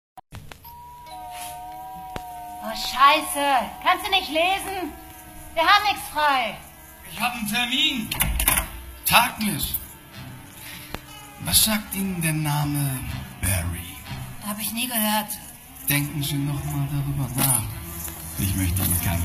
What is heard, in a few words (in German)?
Livehoerspiel; Pre Fiction; Grace und der Tod